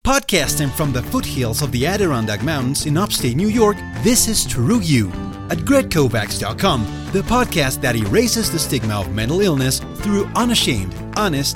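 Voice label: Accented English; GoLocalise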